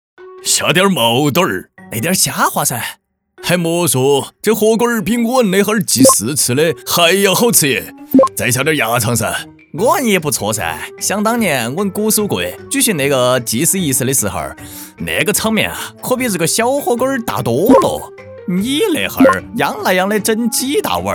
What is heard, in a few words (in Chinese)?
川话幽默; 三星堆青铜人立人